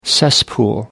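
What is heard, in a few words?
"cesspool", British English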